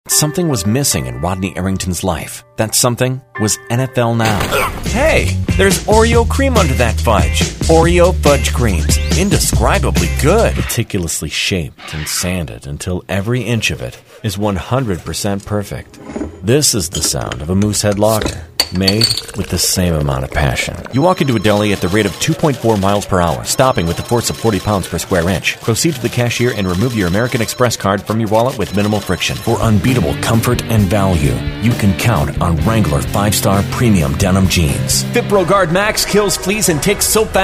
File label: Commercial Demo, voice over